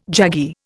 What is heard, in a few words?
"jaggy", Indian